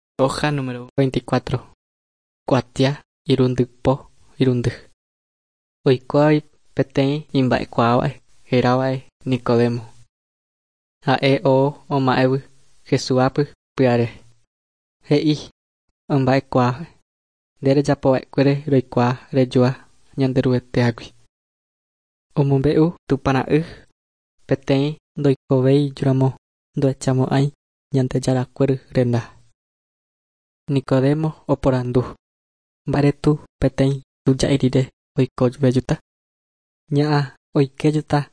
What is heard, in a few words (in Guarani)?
guarani mbya; good news and words of life; bible